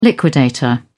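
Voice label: "liquidator", British English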